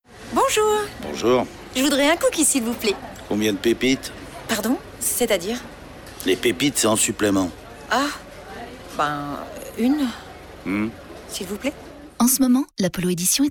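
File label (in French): Pub Radio comédie, voix off féminine; Polo Wolswagen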